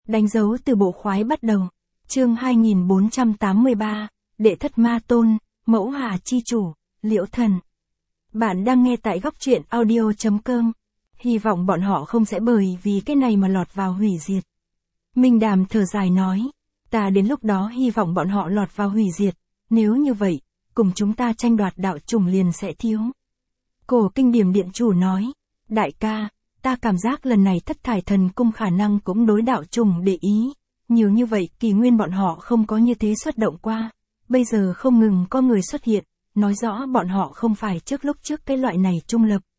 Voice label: vi-VN Standard; danh dau tu bo khoai bat dau

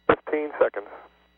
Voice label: Space Shuttle Launch Comm; Nasa Audio Highlight Reels

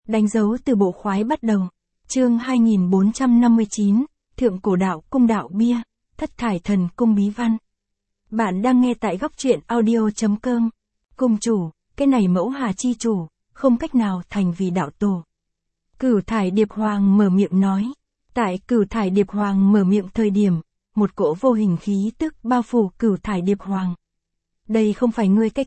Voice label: vi-VN Standard; danh dau tu bo khoai bat dau

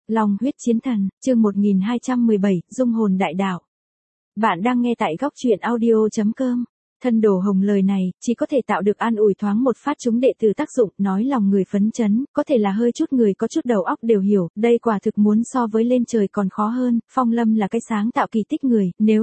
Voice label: vi-VN Standard; long huyet chien than

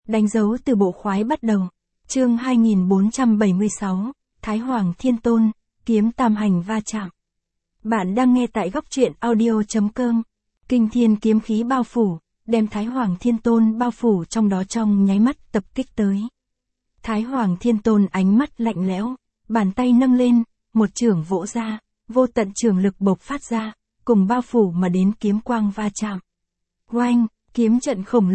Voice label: vi-VN Standard; danh dau tu bo khoai bat dau

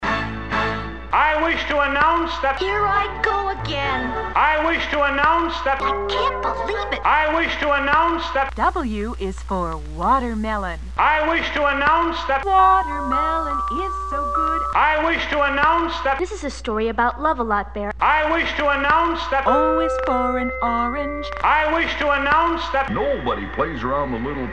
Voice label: more announcements

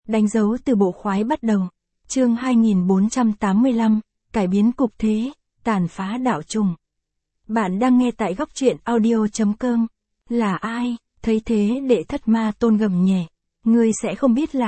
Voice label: vi-VN Standard; danh dau tu bo khoai bat dau

